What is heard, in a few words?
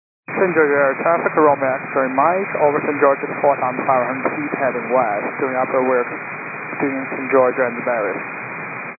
122.925 MHz; scanner radio